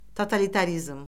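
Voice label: "totalitarizm", Azerbaijani; Orfoqrafiya Lüğəti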